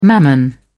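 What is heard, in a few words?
"mammon", English, uk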